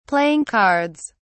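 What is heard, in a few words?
"playing cards", British English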